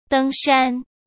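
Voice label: "登山", Mandarin Chinese; chinese-flashcards; hsk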